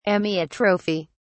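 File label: "amyotrophy", British English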